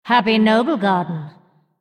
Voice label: female undead happy noblegarden